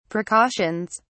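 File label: "precautions", British English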